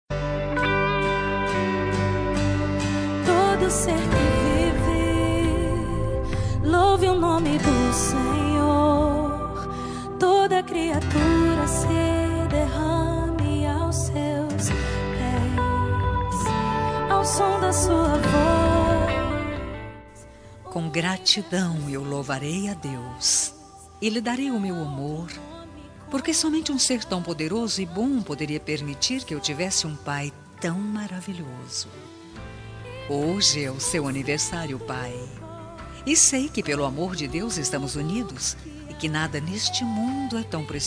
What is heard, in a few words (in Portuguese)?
pai fem gospel; Aniversário de Pai Gospel – Voz Feminina; Telemensagens para todas as ocasiões